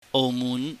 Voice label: "oumuhn", Cantonese

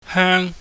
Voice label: "heung", chinese

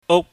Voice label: "uk", Chinese

